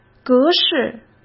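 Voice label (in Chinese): "格式", Mandarin Chinese; chinese flashcards; hsk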